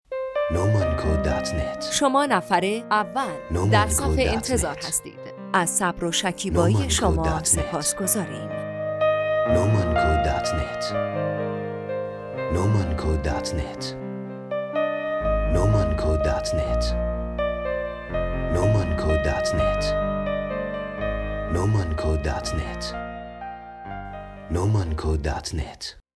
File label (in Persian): فایل صوتی اعلام نفر چندم تا نفر ۳۰ام در صف انتظار با گوینده